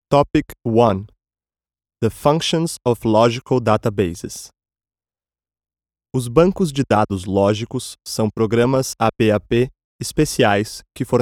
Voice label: portuguese brazilian male